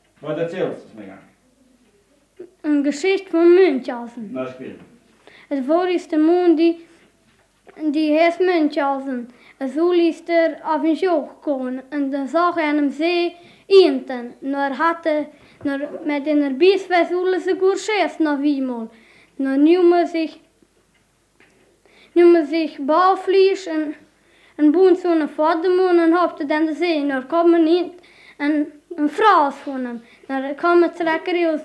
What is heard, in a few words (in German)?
sprachaufnahmen, siebenbuerger; arbegen; zwei geschichten vom baron muenchhausen